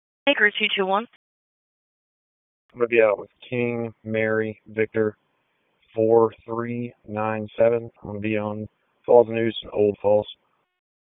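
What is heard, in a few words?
Raleigh Police Northeast District Dispatch; wake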